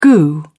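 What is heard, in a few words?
"goo", British English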